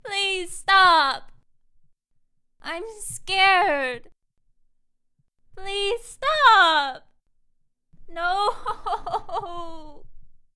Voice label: Girl Please Stop I'm Scared Nooo

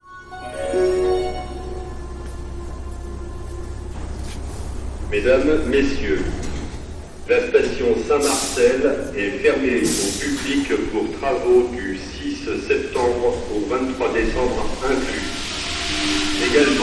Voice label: Announcer And Metro Arriving

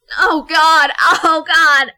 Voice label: Oh god agony

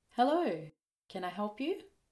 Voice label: Hello Can I Help You Australian Female Voice

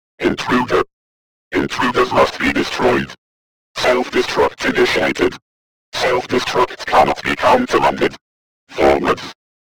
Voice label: Robotic Voice

